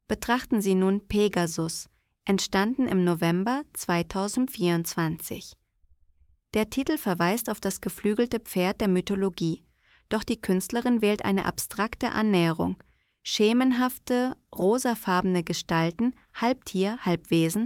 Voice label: voice audio guide; pegasus